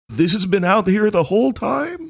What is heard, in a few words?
saying this has been out here whole time funny